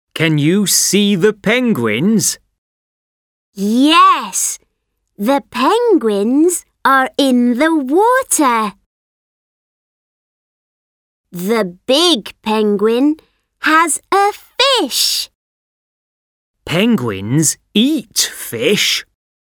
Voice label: At the Zoo British English; BrE; oxford read and imagine